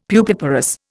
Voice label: "pupiparous", Indian; Dictionary

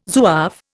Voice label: "Zouave", Indian

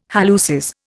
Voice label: "halluces", Indian

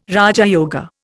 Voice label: "raja yoga", Indian

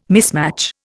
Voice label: "mismatch", Indian

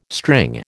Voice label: "string", American

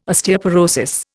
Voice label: "Osteoporosis", Indian

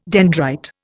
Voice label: "dendrite", Indian

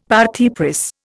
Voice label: "parti pris", Indian; Dictionary

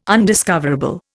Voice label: "undiscoverable", Indian

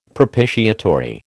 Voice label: "propitiatory", American

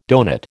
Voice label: "donut", American

